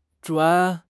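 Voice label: "zhuan3", Mandarin Chinese; HSK; HSK4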